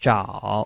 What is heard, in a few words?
"zhao3", Chinese